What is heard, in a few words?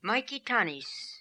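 "Maikitanis", pronunciations; Fourth Way; Beelzebub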